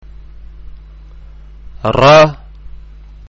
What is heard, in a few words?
"Raa", Arabic letter sound